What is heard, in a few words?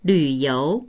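"lv3you2", Mandarin Chinese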